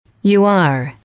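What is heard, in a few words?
"you are informal", English, female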